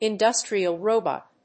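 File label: "industrial robot", English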